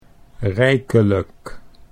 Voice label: "rijkelijk", Dutch

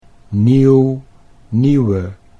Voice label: "nieuw nieuwe", Dutch

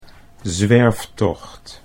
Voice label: "zwerftocht", Dutch

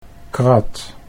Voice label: "krat", Dutch

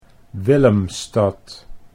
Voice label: "Willemstad", Dutch

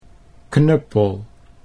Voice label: "knuppel", Dutch

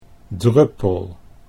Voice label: "druppel", Dutch

